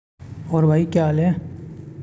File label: voice message